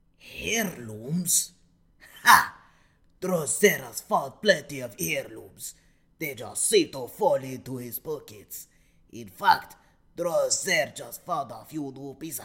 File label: khajiit audition; drozhirr; khajiit voice auditions